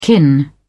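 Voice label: "kin", British English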